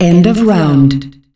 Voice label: End Of Round, announcer female; Unreal Tournament; ut2004